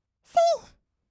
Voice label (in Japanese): せいー, kawaii voice; 音ゲー